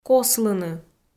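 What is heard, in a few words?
"кослыны", Komi, female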